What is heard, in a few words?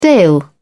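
"dale", British English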